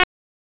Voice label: Raleigh Police Northeast District Tac, p25

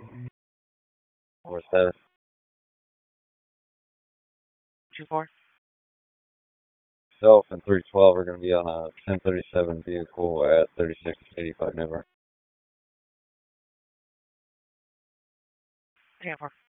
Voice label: Police Northeast District Disp, p25; Raleigh; wake

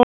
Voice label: Police Northwest District Tac 1; Raleigh; wake

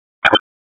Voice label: Police Southeast District Tac 1, P25; Raleigh; wake

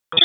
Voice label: EMS ALERT, p25; wake

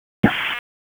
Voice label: EMS ALERT, p25; wake

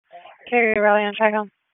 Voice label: Comm Center Intersystem, p25; Wake Comm Center Intersystem

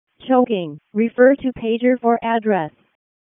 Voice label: WC EMS ALERT, p25

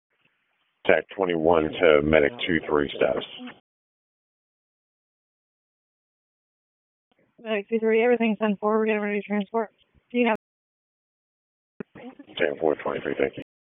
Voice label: PS TAC, p25; wake

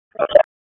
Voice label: Police Tac, p25; wake

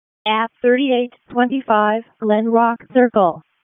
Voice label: EMS ALERT; wake; p25

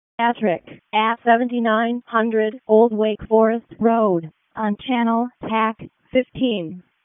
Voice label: WC EMS ALERT, p25; wake p25

